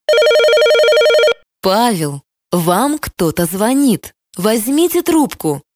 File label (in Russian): Мужские именные звонки